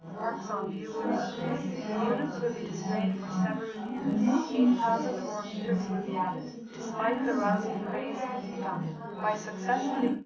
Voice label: female4 380ms 5cm, underdetermined; SiSEC11